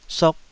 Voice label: "sok2", Taiwanese syllable